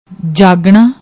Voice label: "jaagna", Punjabi